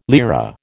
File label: "lira", American